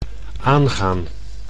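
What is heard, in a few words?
"aangaan", Dutch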